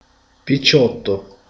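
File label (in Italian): "ragazzo", Italian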